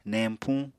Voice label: "neñpuñ", Apatani; Material Culture

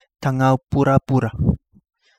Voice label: Kapingamarangi; black banded snapper

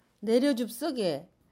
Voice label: discount please, Jeju-eo, Dialect: Jeju-si; 내려줍서게

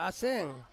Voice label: "aseng", Ansus; breathe

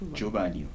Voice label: "afternoon greeting", Kibembe

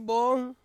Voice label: "on", Ansus

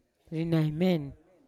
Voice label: "rinaimen" to remember, Yawa

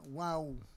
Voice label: "waw", Roon

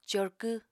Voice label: "corkw", apatani

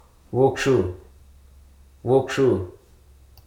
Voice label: "halt", Hruso

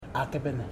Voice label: "white", Ipili

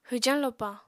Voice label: "hwjañ lopa", Apatani

